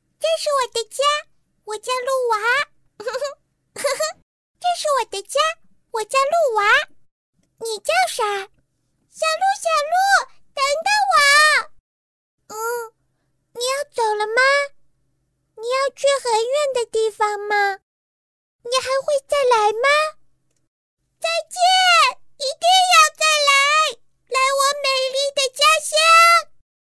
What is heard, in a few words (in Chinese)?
女 童声 女童; 鹿娃